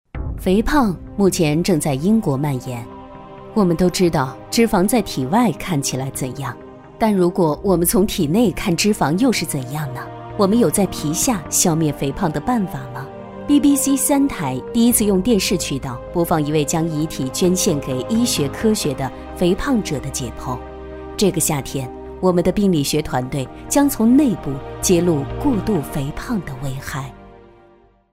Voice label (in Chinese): 女 旁白 译制片纪录片